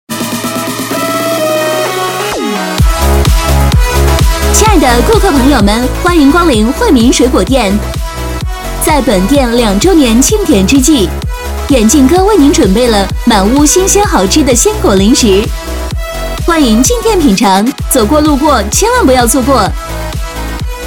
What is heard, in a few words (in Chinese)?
女 促销 鲜果零食店